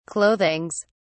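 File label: "clothings", British English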